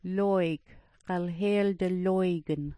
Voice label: "looyk", smalgyax